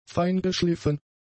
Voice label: "feingeschliffen", german; adjectives

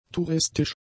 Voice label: "touristisch", German; adjectives

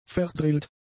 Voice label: "verdrillt", German; adjectives

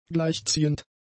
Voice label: "gleichziehend", German; adjectives

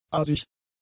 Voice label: "aasig", German; adjectives

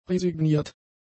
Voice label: "resigniert", German; adjectives

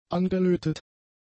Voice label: "angelötet", German; adjectives

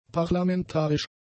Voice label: "parlamentarisch", German; adjectives

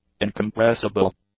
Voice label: "incompressible", English; adjectives

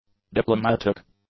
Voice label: "diplomatic", english; adjectives

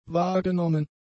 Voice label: "wahrgenommen", German; adjectives

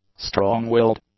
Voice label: "strong-willed", English; adjectives

